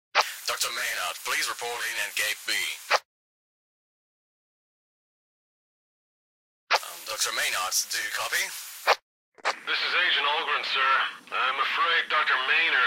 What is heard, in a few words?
Chatter; Radio